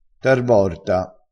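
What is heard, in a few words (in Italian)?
"tarvorta", vocabolario dialettale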